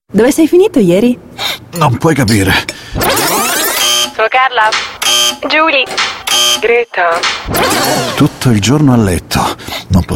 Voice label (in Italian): radio comunicati; età della voce: 30-50 - anni